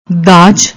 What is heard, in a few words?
"daj", Punjabi